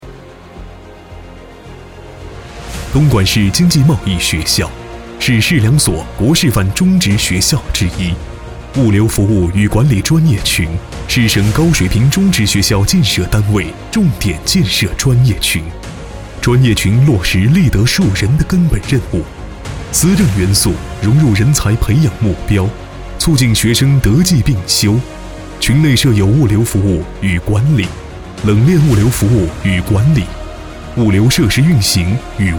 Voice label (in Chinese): 宣传; 东莞市经济贸易学校